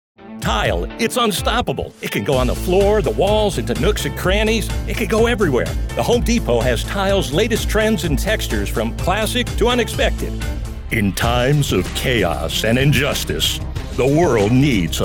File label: Commercial Voiceover, Deep Voice